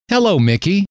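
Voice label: "hello", male; trainer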